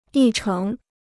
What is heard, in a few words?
"议程", Chinese